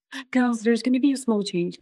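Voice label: Voice Acting es, female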